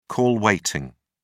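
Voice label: "call waiting", British English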